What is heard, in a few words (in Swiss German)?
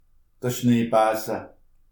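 "schneebese", Alsatian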